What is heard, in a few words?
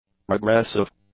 "regressive", English; adjectives